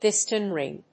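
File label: "piston ring", English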